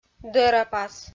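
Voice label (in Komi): "дӧрапас", Komi, female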